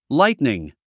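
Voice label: "lightning", English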